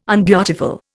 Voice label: "unbeautiful", Indian